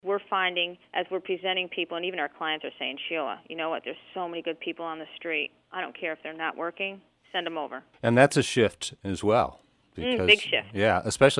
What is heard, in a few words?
promo